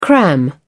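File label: "cram", British English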